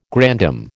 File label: "grandam", American